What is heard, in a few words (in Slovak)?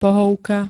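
"pohovka", Slovak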